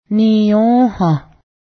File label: little not much, Cayuga; niyǫ́hah